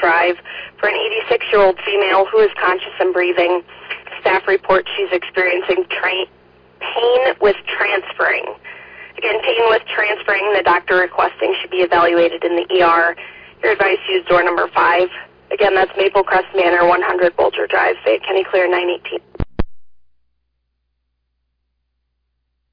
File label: Ambulance, dispatch